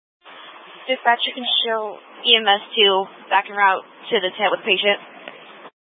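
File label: Incident Tactical 3, Scanner audio recording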